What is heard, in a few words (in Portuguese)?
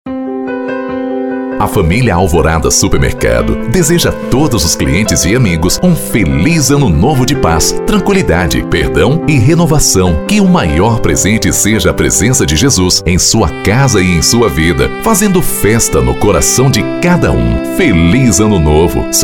Spot Simples Institucional; Alvorada